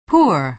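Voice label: "poor", American English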